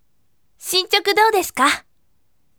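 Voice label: voice, bright; shinchoku dou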